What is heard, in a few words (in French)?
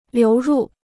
"流入", chinois